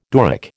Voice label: "Doric", American